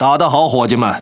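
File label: Speech Quick Commands Good Game, Chinese; World Of Warships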